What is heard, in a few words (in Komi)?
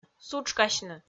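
"сутшкасьны", Komi, female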